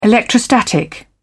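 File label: "electrostatic", British English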